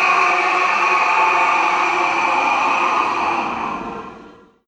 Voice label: de man alert; npc; svencoop; morass beta